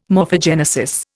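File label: "morphogenesis", Indian English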